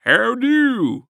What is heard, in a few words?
Human Cowboy Say "How Do"